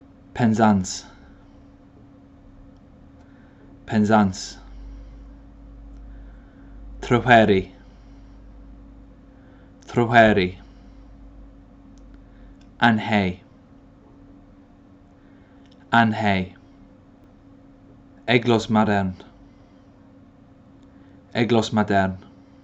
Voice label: "Penzance", Place Names Pronunciation